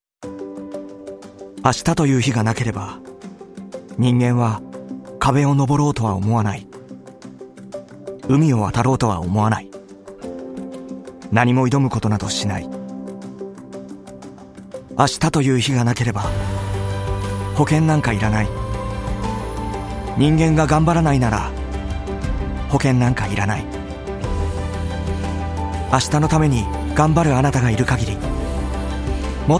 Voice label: japanese commercial, edgy; edgy voice actors